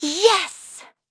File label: Happy; Xerah; kings raid; en